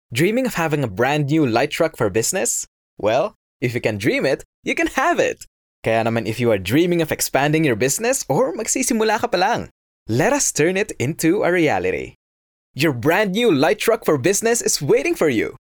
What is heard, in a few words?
Tagalog M English